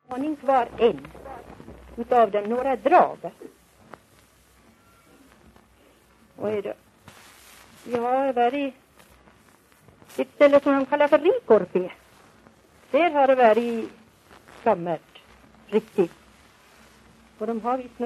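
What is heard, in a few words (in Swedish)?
Järbo Gästrikland, Svenska sagor, dialekter; Folkminnen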